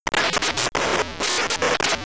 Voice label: greetings synthesized; ay-3-8910